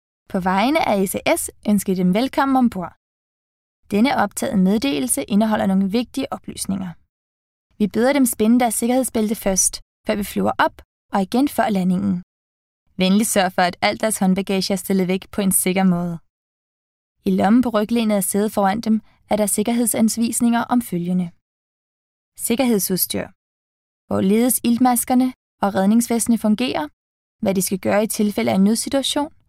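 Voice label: Danish Corporate; GoLocalise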